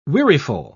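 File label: "weariful", British English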